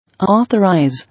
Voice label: "Authorize", English

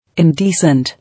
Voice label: "Indecent", English

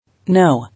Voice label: "Know", English

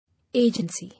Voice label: "Agency", English

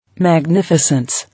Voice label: "Magnificence", English

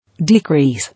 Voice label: "Decrease", English

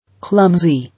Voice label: "Clumsy", English

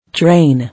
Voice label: "Train", English

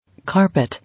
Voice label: "Carpet", English

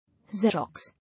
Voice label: "Xerox", English

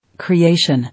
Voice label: "Creation" English

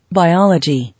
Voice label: "Biology", English

